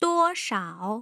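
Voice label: "duoshao", Mandarin Chinese, tone pairs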